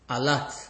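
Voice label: "alert", Nigerian Pidgin, male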